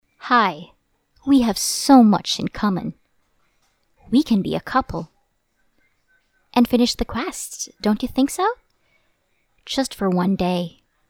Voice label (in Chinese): 英语年轻女角色